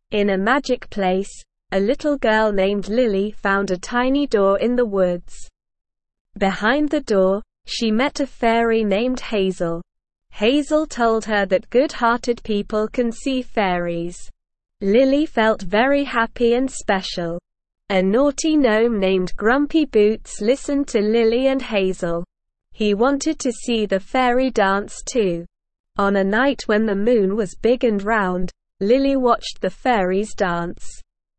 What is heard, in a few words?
ESL Short Stories for Kids Beginner SLOW Reading; The Fairys Secret